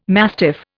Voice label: "mastiff", Indian

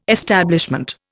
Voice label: "establishment", Indian

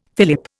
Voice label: "fillip", Indian

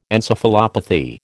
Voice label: "encephalopathy", American

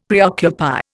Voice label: "preoccupy", Indian; Dictionary

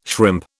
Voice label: "shrimp", British

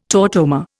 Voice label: "tautomer", Indian

